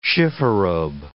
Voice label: "chifforobe", English